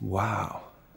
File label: "wow" English; ooh ahh